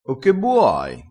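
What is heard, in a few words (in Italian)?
"ave buo", dialettale crespinese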